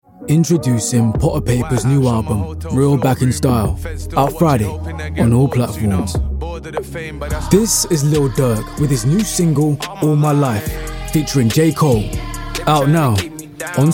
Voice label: promo demo

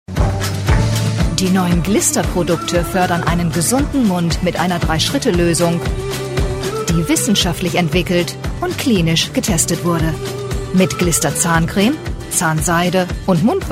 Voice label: German female German commercial

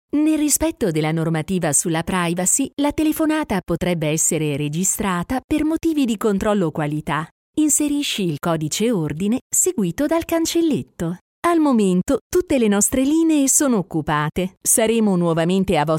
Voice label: italian voiceover telephone

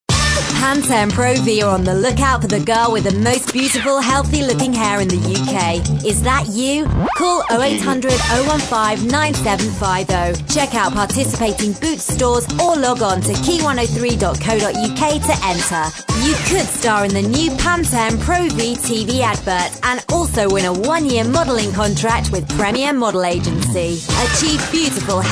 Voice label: Commercial Showreel voice over, Female Voiceover

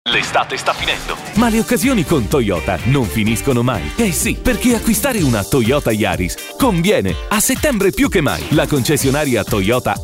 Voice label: commercial, Energetic Deep Italian Male

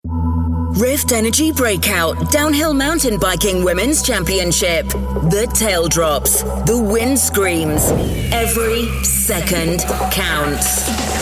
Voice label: high octane punch read promos

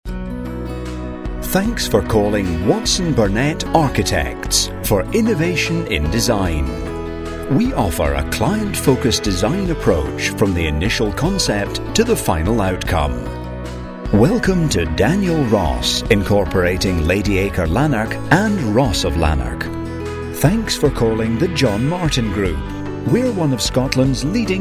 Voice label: on-hold

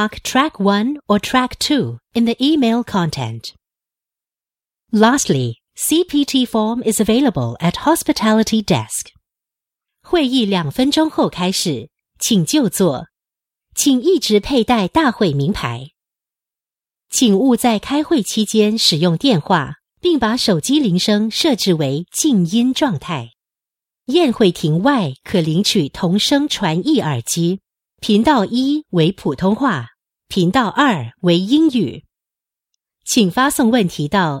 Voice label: singapore voice events

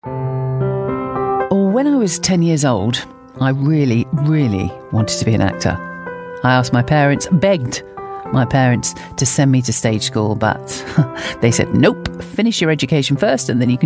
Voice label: natural conversational

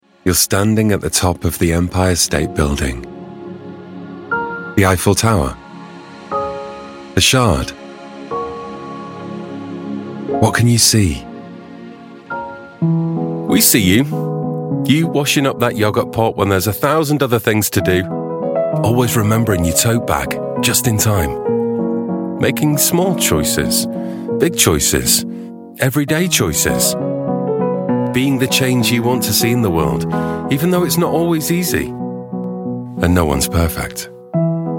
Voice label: brand portfolio, voiceover

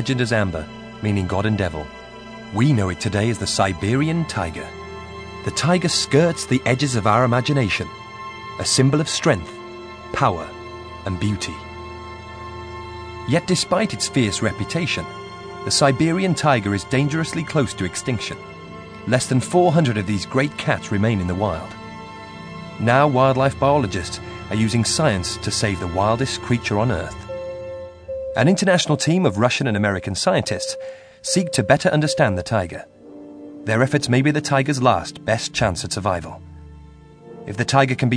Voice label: narrative, Versatile Deep Male Voice

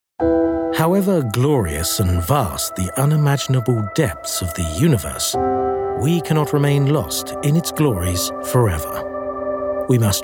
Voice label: documentary

